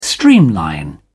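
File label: "streamline", English, British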